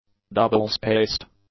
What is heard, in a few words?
"double-spaced", English; adjectives